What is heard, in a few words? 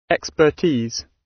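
"expertise", British; Space, the planets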